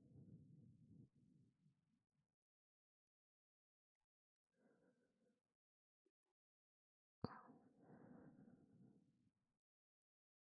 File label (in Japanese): 添い寝ループ, ASMR